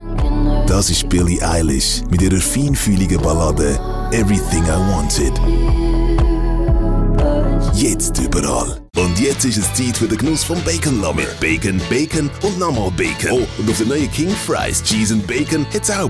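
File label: German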